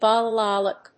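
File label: "balalaika", English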